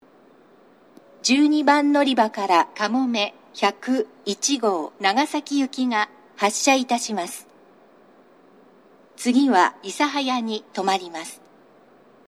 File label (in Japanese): 12番のりば発車放送; かもめ101号 長崎行き; shin omura